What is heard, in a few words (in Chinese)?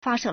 "fa1she4", Chinese